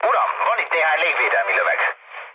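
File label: Hungarian damaged aa mood low, speech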